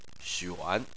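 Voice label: "xuan", Chinese, third tone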